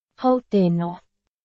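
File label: Burmese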